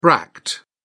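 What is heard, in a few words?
"bract", English, British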